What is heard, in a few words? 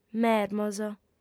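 "mermos", female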